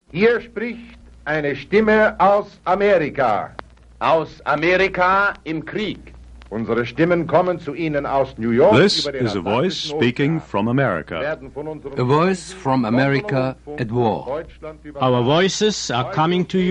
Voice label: first voa broadcast german english; 79 days at war